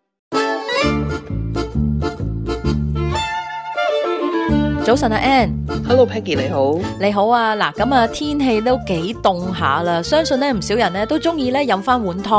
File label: cantonese; 【暖笠笠】周打蜆湯麵包碗 香滑足料暖入心; 好煮意; SBS Chinese